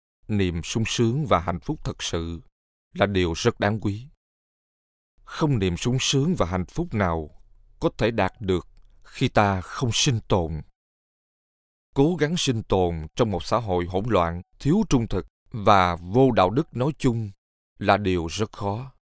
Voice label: Audiobook